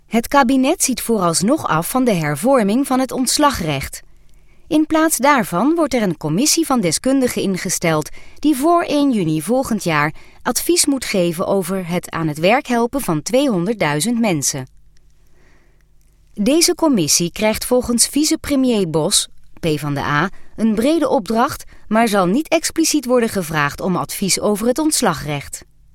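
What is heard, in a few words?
NL F corporate, Dutch, female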